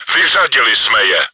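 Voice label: enemy killed by player, чешской озвучки; World of Tanks; Vyřadili jsme je!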